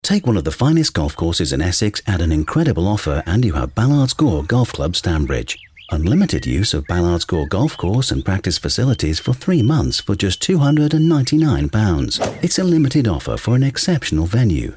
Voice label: British Voiceover Radio Commercial; BALLARDS GORE GOLF CLUB; RP

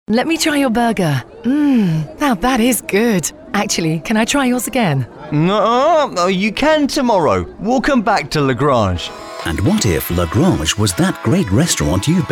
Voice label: British Voiceover Radio Commercial; LAGRANGE; RP

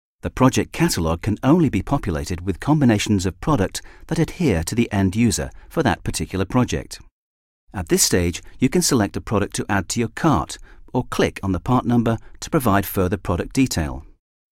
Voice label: British Voiceover, Video; ROCKWELL AUTOMATION

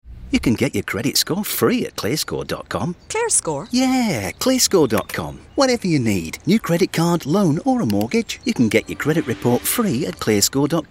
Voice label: British Voiceover TV Commercial; CLEARSCORE; Keith Tortoise

